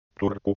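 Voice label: "Turku", Finnish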